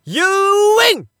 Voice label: Narration You Win